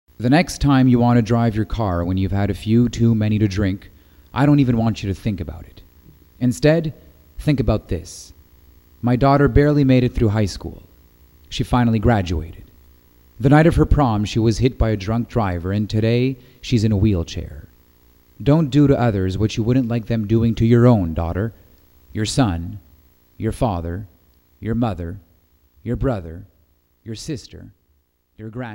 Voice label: eng